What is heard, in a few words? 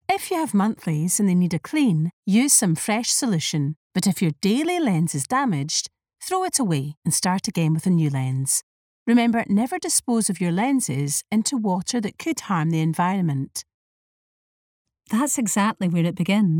Commercial